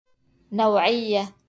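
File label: "نوعية" Arabic; quality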